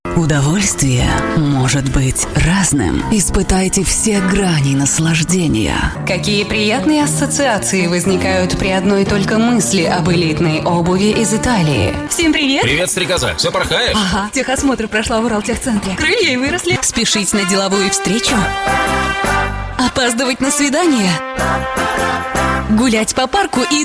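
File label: female, Russian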